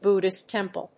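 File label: "Buddhist temple", English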